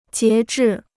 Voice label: "截至", Chinese

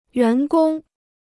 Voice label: "员工", Chinese

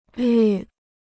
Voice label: "率", Chinese

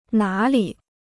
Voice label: "哪里", Chinese

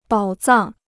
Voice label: "宝藏", Chinese

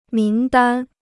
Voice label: "名单", Chinese

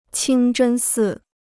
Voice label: "清真寺", Chinese

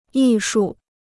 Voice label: "艺术", Chinese

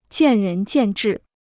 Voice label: "见仁见智", Chinese

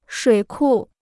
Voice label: "水库", Chinese

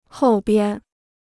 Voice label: "后边", Chinese

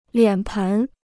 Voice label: "脸盆", Chinese

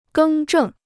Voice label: "更正", Chinese

